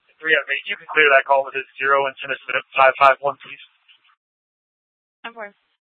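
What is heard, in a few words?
Scottsdale Police Department South Dispatch; Regional Wireless Cooperative (RWC) - Simulcast H- Scottsdale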